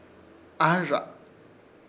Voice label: "AHƗRRZA" pajinas, Ishir Chamacoco

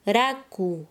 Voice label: "grueso", Quechua Chanka

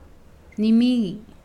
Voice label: Nawat palabras morir; pipil

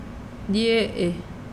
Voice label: "mano", mazahua

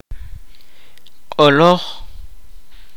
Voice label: "broma", Kaqchikel

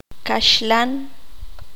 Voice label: "pollo", qanjobal

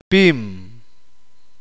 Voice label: uspanteko grueso objetos planos

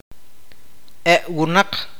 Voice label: "personas", awakateko

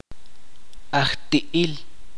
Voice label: donde, chuj

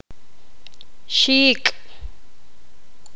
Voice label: ala, poqomam

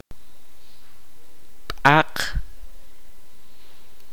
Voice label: "delgado objetos silindricos", kaqchikel

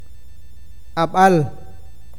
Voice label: "lluvia", awakateko

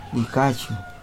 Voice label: Nawat palabras cuerno; pipil

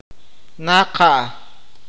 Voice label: "cerca", awakateko

